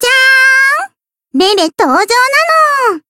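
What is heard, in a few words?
Voice Japanese; lele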